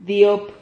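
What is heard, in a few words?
"diop", Spanish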